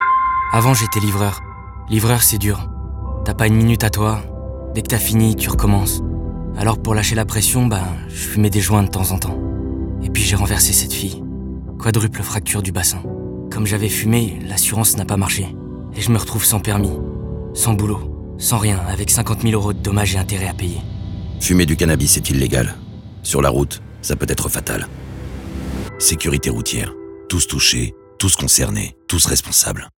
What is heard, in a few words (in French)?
Radio drogue au volant; Prevention sante securite